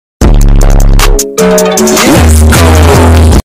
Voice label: lets gooooo bass boosted